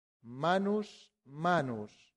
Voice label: manusus, latin; santillana

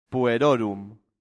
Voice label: "puerorum", latin; santillana

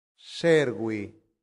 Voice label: "servi", Latin; santillana

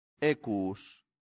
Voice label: "equus", latin; santillana

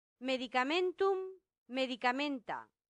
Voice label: "medicamentum medicamenta", latin; santillana

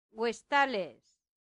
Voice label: "vestales", latin; santillana

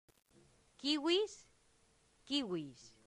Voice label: "civis civis", latin; santillana

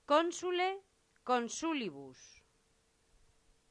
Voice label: "consule consulibus", latin; santillana

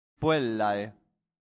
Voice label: "puellae", latin; santillana

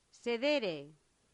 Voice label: "sedere", Latin; santillana

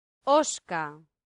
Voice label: "osca", latin; santillana

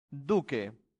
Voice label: "duce", latin; santillana

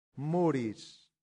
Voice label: "muris", latin; santillana

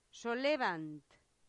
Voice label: "solebant", latin; santillana

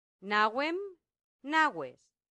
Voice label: "navem naves", latin; santillana

